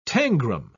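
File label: "tangram", British English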